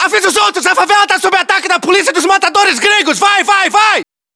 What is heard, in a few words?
hostile burst, battlechatter; cod modern warfare 2